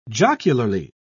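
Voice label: "jocularly", British English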